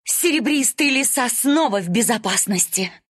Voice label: win, ru; Luna; Dota 2